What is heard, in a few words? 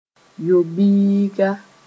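"yo-biiga", Mossi; glans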